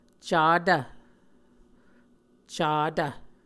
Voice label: "catv", Muscogee

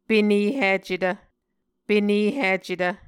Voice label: "penehicetv", Muscogee

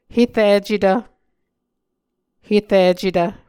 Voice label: "hericetv", Muscogee

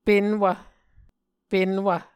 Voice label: "penwv", Muscogee

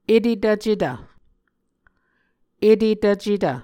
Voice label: "etetvcetv", Muscogee

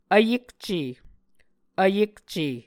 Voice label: "vyekce", Muscogee

